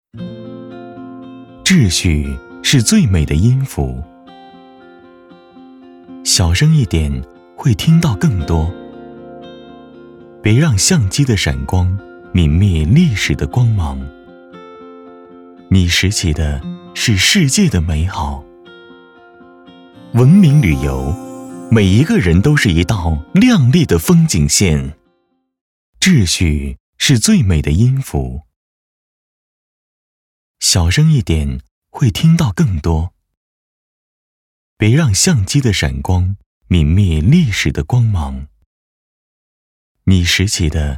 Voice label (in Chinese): 文明旅游宣传